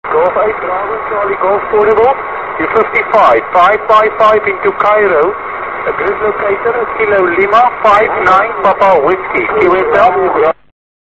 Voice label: qso